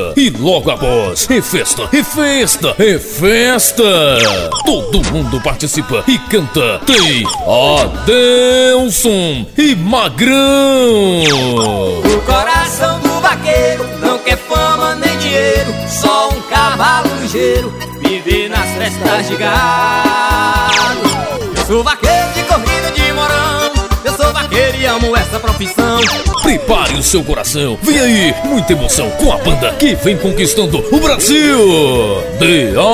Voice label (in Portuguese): locutor, cavalgada